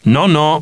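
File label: "no", male; TALKNOW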